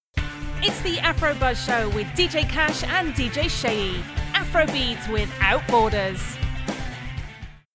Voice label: Radio; Afro Buzz